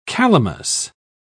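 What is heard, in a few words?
"calamus", English, British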